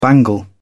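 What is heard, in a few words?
"bangle", British English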